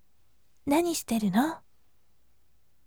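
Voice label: "nanishiteruno", gentle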